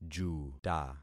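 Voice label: "juta", concatenated syllables; Affixing experiment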